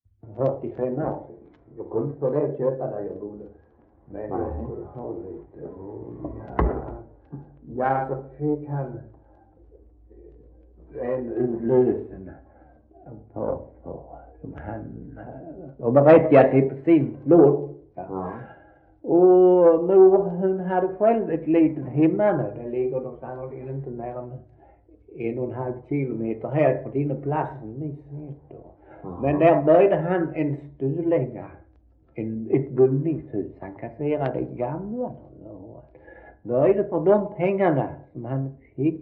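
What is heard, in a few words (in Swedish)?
Svensköp Skåne, Svenska sagor, dialekter; Folkminnen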